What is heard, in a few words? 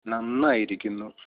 fine, malayalam; നന്നായിരിക്കുന്നു; nannāyirikkunnu